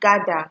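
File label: "gada", Nigerian Pidgin, female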